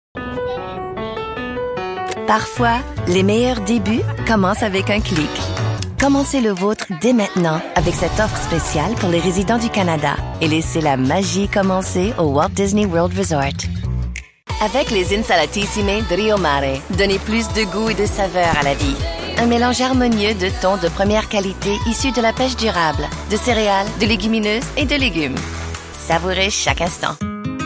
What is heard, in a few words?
French Commercial